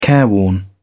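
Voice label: "careworn", British English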